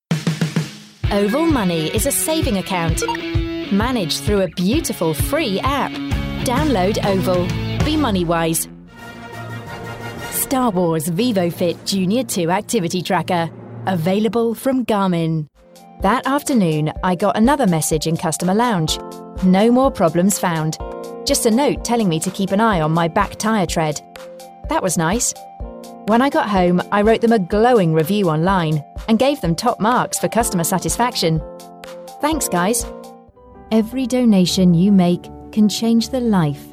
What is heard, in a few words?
English F Commercial